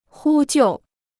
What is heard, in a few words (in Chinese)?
"呼救", Chinese